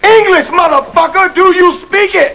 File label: english; torchlight